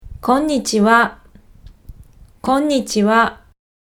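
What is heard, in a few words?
"konnichiwa", Japanese, Kansai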